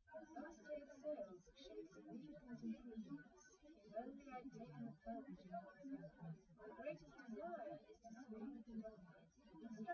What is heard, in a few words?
female, live rec; SiSEC08; SiSEC underdetermined